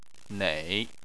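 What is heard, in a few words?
"nei", Chinese, third tone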